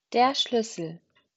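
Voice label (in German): "Schlüssel", German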